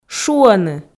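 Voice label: "шуӧны", Komi, female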